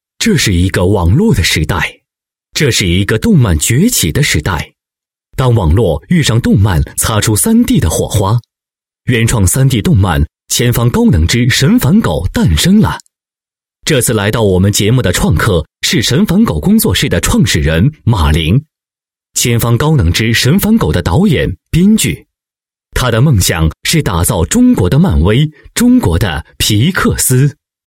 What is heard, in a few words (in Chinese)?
男 专题 工作室介绍, 国语男声配音; 神烦狗工作室